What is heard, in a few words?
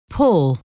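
"pour", English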